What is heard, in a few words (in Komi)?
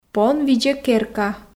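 пон видзӧ керка, Komi, female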